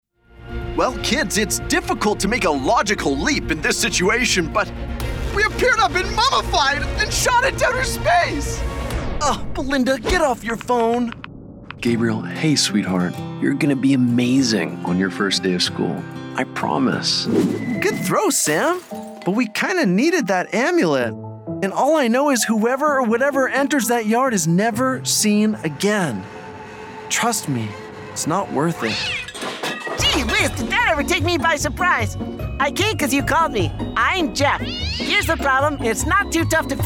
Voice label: Animation Character